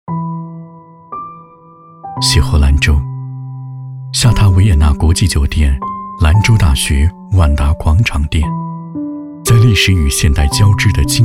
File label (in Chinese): 男 酒店宣传; 维也纳国际酒店